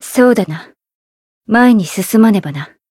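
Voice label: Voice Japanese; hiina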